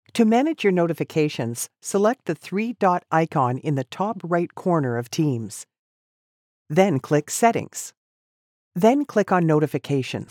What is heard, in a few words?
Voiceover Educational